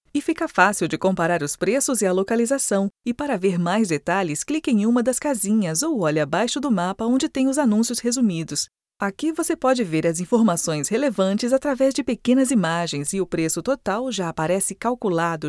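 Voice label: PT BR, Brazilian Portuguese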